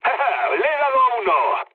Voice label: player killed enemy, mood med, speech